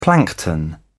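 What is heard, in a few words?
"plankton", British English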